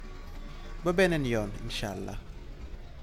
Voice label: Wolof see you later God willing